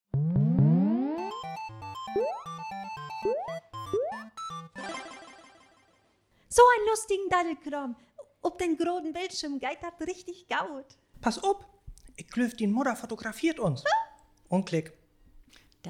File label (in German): Dialog, niederdeutsch